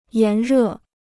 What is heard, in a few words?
"炎热", Chinese